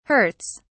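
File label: "hurts", British English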